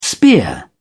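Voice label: "spear", British English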